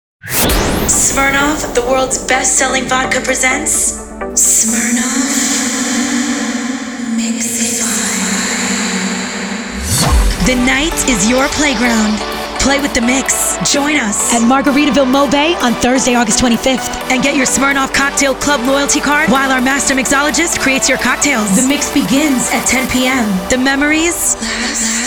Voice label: VOICE OVER